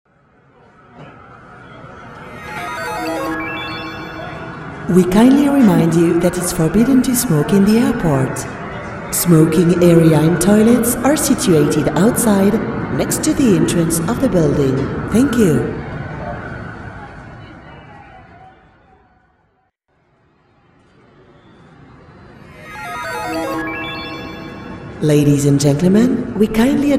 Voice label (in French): ANGLAIS Evénementiel Annonce hôtesse de l air